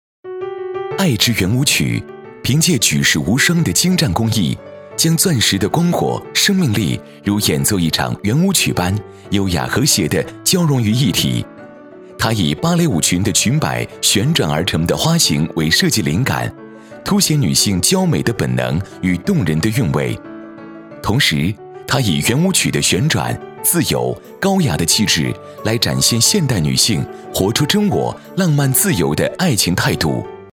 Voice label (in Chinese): 男 专题 温暖时尚; 爱之舞曲